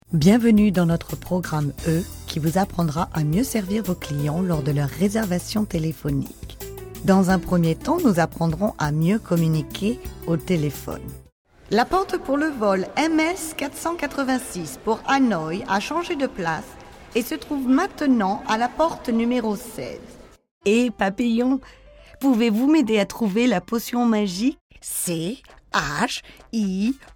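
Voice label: French Megamix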